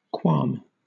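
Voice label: "qualm", British English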